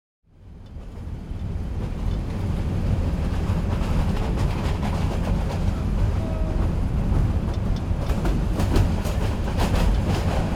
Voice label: Train food vendor, Bangladesh; Les Cartes Postales Sonores; NOISE ON EARTH Vol2